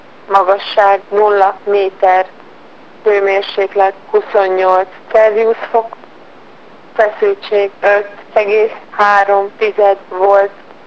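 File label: msg hu f, Hungarian, female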